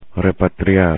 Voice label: "repatriar", Spanish; infinitive